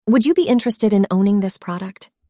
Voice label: synthesized audio